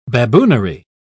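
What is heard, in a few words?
"baboonery", British English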